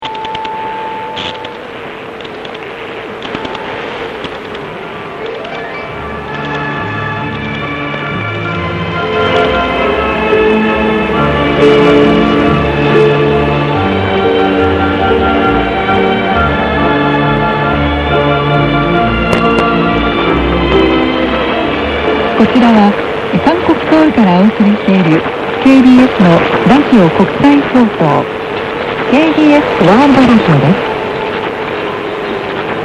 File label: radio Korea